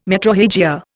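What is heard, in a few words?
"metrorrhagia", English, Indian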